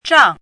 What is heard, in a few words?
"zhang4", chinese